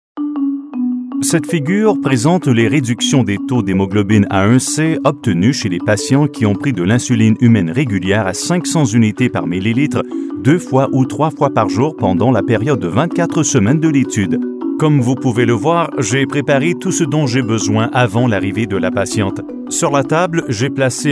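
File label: Narration Samples, French Canadian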